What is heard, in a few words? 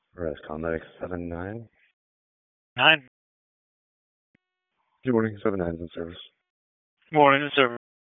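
EMS Dispatch, p25; wake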